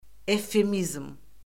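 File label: "evfemizm", Azerbaijani; Orfoqrafiya Lüğəti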